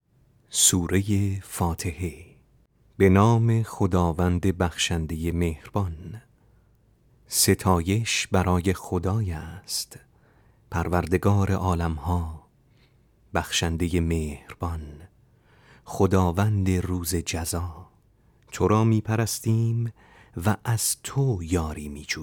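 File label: Persian, voice over dubbing, male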